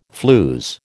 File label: "flews", American